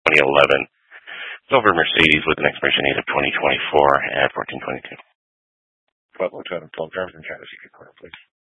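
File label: Troop 2A Dispatch, scanner audio recording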